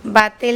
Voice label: "batel.Noe", Andamanese

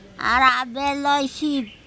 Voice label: "arabeloSip", andamanese; younger brother

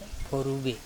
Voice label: "phorube", andamanese

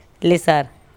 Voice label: "leSar Lico", andamanese; night, dark

